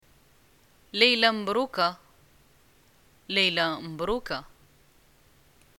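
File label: greeting good night, Moroccan Arabic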